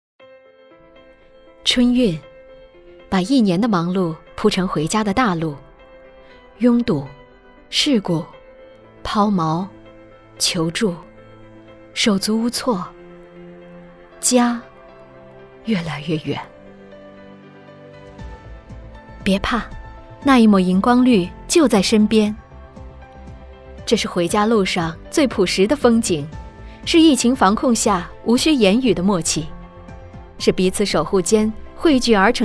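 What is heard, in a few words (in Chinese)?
宣传片最朴实的; 荧光绿; 风警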